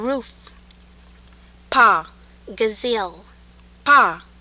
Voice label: Swahili ph; aspiration